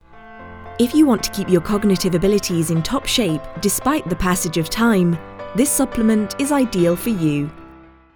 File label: english british medical narration